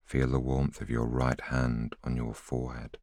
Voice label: voiceover